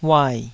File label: "uai3", Taiwanese